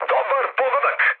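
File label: Serbian player killed enemy mood high, speech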